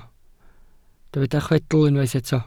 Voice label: "chwedl", Welsh

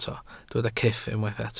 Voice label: "cyff", Welsh

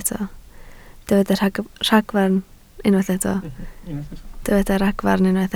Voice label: "ragfarn", Welsh

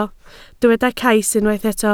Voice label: "cais", Welsh

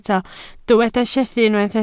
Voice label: "llethu", Welsh

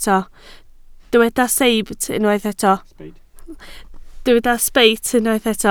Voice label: "sbeit", Welsh

